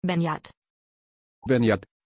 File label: pronunciation Beñat, Deutsch